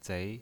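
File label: "zei" Mandarin Chinese pinyin voice, second tone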